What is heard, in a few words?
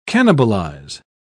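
"cannibalise", British English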